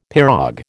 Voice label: "pirogue", American

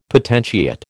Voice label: "potentiate", American

